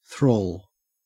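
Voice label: "thrall", Australian English; pronunciations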